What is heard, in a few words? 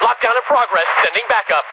Dispatch; Mirror's Edge (2008); Unused Assets; New Eden